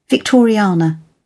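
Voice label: "victoriana", British English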